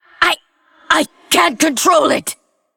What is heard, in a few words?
Female Lich Unstable Shadow Bolt